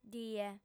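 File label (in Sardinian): "die", female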